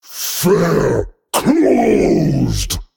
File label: Male Abyssal Attack